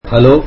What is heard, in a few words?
tamil hi